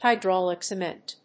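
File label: "HYDRAULIC CEMENT", English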